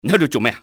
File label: what are you doing, cantonese male; XBOX; enemy